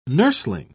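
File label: "nursling", English, British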